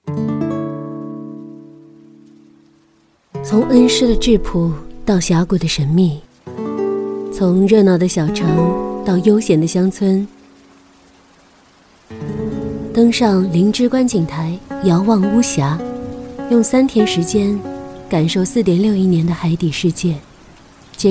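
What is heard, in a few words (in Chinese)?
旁白 女 宣传片 短视频 自然讲述 素人 风景; 恩施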